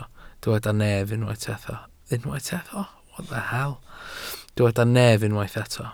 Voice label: "nef", Welsh

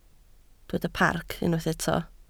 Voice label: "parc", Welsh

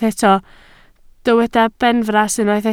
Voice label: "benfras", Welsh

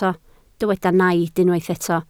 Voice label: "naid", Welsh

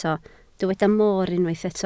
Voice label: "mor", Welsh

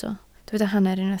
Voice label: "hanner", Welsh

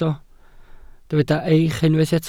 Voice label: "eich", Welsh

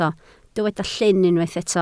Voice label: "llyn", Welsh

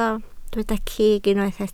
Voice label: "cig", Welsh

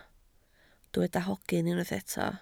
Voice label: "hogyn", Welsh

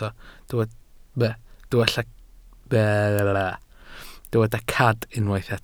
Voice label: "cad", welsh

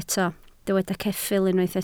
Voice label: "ceffyl", Welsh

